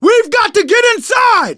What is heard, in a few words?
stay together inside, survivor voice; manager; Left 4 Dead 2; left4dead2 dlc2